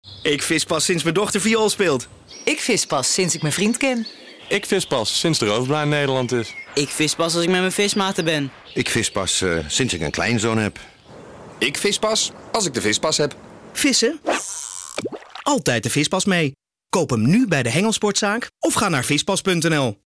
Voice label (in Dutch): radiospot